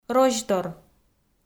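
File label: "розьтор", Komi, female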